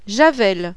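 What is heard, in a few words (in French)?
"JAVEL", French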